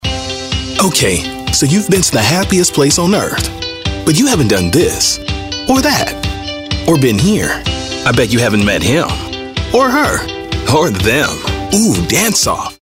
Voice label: storyteller